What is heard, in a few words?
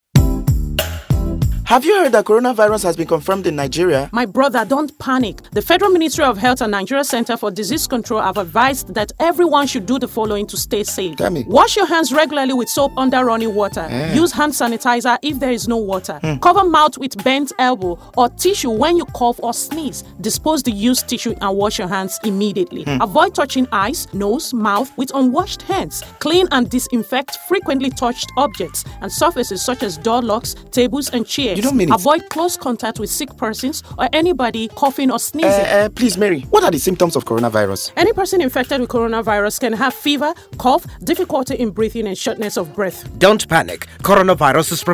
COVID 19 English Audio Jingle